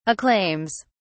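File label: "acclaims", British English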